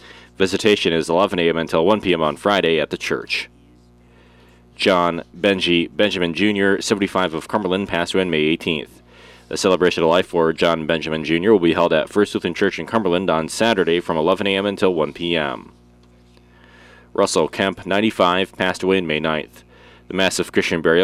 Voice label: Wednesday Funeral Announcements